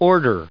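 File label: "order", US English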